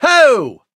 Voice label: hurt; 巴斯光年

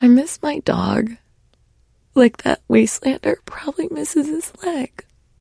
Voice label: trader sad joke; 商人杰恩（七日杀）

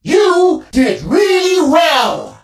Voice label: robo twin hurt vo

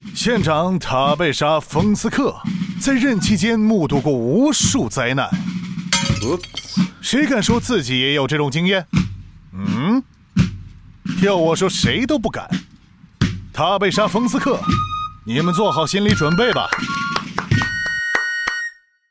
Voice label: Mandarin Ad; 电台广告; 双点校园; Vote Windsock; Countless Disasters

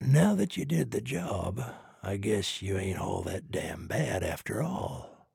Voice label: trader male dislike quest complete

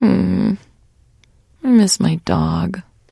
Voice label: trader sad; 七日杀; 商人杰恩

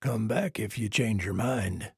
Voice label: trader male like quest declined

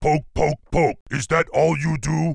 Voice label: Grunt pissed, en; 苦工（魔兽争霸3）; 兽人

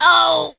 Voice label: hurt vo

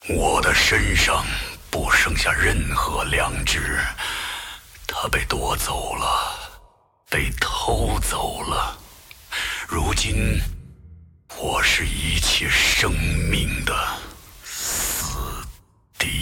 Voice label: move, zh

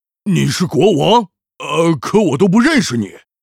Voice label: peasant pissed, zh

